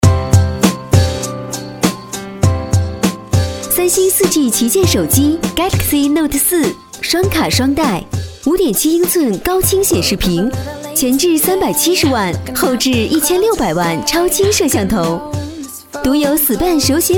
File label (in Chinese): 女 广告 科技感, 国语女声配音; 三星手机